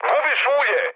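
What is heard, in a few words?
Serbian damaged oil mood med, speech; War Thunder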